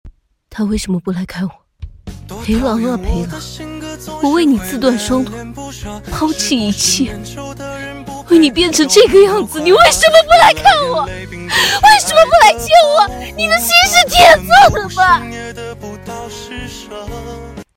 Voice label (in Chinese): 哭腔